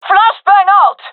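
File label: throwing flashbang, gendarmerie female; vo; agents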